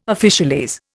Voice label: "officialese", Indian

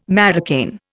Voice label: "marocain", Indian

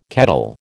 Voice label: "kettle", American

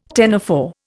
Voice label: "ctenophore", Indian

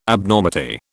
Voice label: "Abnormity", British

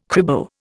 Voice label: "cribo", Indian

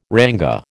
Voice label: "rang", American

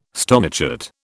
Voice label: "stonechat", British

